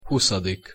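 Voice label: "huszadik", Hungarian pronunciation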